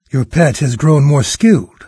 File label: pet level up, narrator; Fate Undiscovered Realms